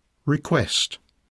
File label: request, rythme; poitiers